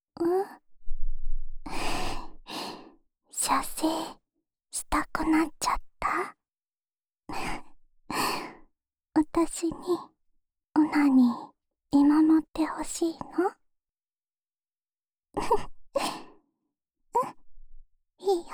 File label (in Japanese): シコシコボイスループ（右耳）; ASMR; オナサポ用; おま○こ係１